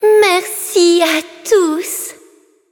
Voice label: levelup, fr; cookie run